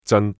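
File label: "zan3", Cantonese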